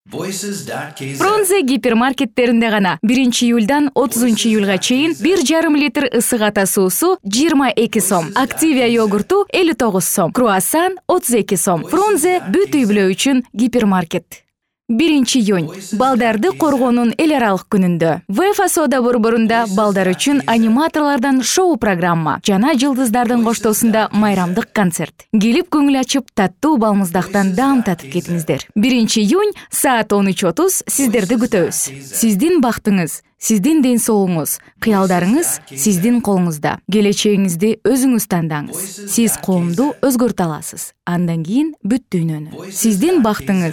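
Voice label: Kyrgyz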